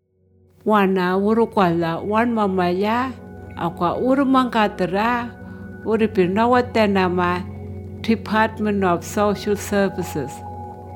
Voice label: Anindilyakwa; Income Management Consult